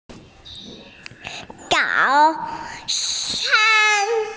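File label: "breakfast", Mandarin, hearing-impaired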